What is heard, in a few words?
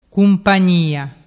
"cumpagnia", dialettu